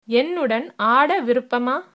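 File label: dance, tamil, female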